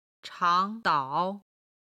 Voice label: "chang2 dao3", Chinese